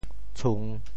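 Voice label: "tshung3", Teochew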